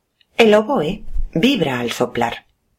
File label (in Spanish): "oboe", locuciones